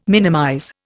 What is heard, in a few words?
"minimize", Indian